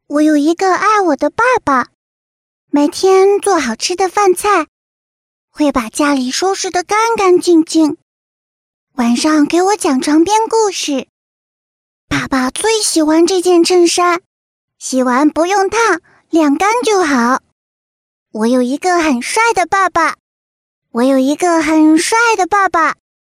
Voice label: 女国 动画 童声 自然女童模仿 非卡通感

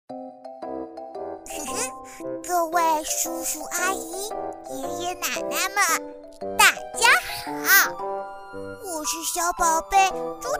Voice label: 男童声

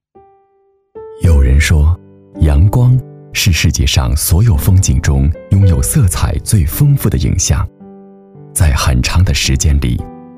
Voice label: 宣传片 地产 温暖, 男国; 阳光城

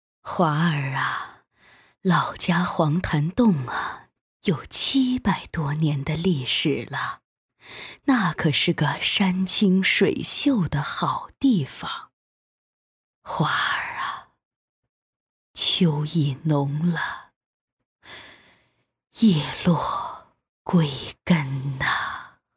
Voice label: 女 动画 老人 老人讲述

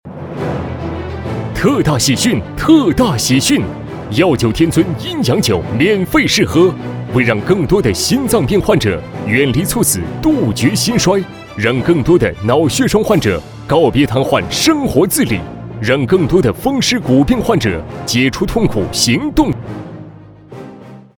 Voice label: 广告 电购 医药活动片花, 男

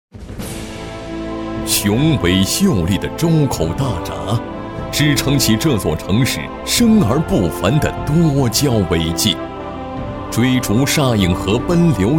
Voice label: 专题 学校 大气, 男国; 周口龙水中学

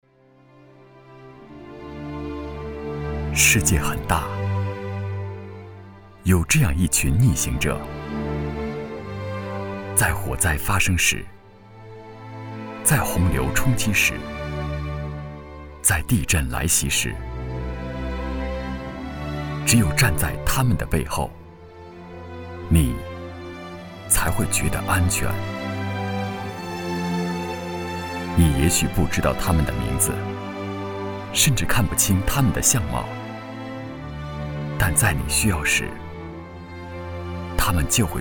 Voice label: 专题 人物 抒情, 男国; 逆行者

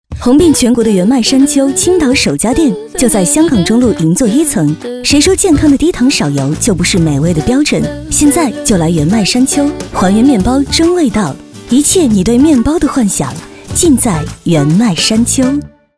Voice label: 广告 食品饮料 面包店 温暖

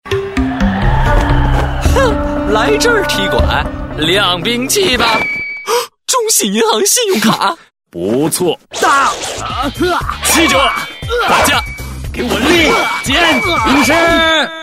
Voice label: 广告 银行 年轻; 中信银行

